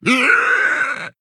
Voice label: Male Quilboar Death